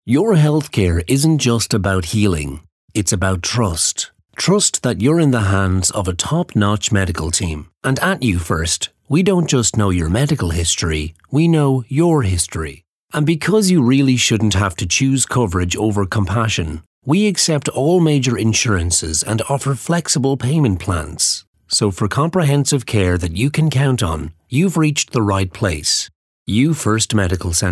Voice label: Professional